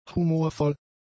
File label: "humorvoll", German; adjectives